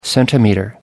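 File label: "centimeter", British English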